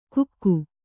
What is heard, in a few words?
"ಗುಕ್ಕು", Kannada